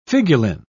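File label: "figuline", English, British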